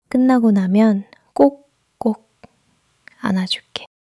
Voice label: joy